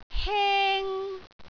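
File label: "heng1", Cantonese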